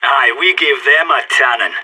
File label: radio bot end solid, player vo sas